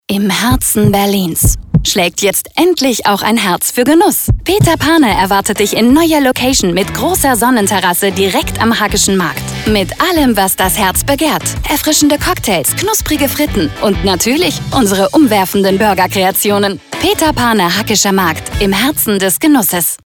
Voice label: Funk Spot; LOFT VOICES